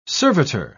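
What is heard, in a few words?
"servitor", British English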